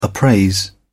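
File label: "appraise", British English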